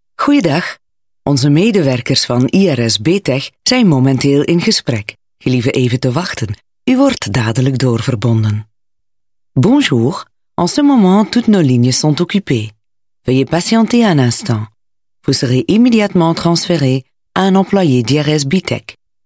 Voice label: Dutch F; Female

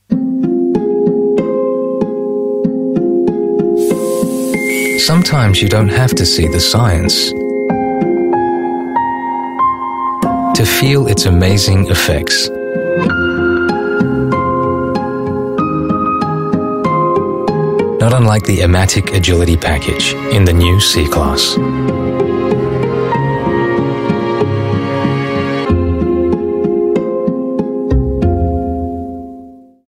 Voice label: Asian English, English Asian Male; Mercedes Benz Air

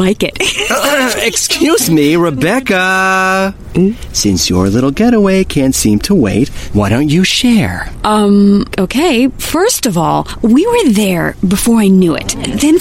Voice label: Bermuda Tourism US English, English US Male

